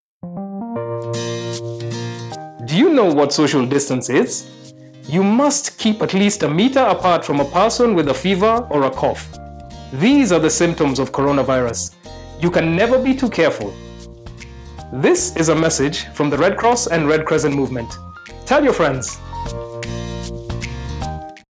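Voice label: Covid19 Radio Spots English Male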